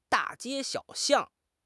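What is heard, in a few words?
"da4 jie1 xiao3 xiang4", Chinese; 汉语口语新时代-初级下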